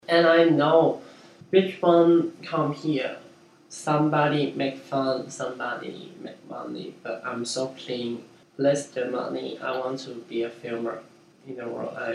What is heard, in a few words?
China Zhuhai Male monologue